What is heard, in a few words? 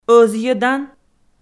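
"ӧзйӧдан", Komi-Zyrian, female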